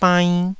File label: "bainn1", taiwanese